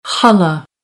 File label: "challah", American English; ɑː - ɒ